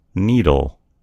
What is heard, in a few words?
"needle", en US; gcompris